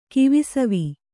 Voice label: "ಕಿವಿಸವಿ", Kannada